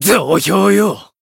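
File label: Voice finish, Japanese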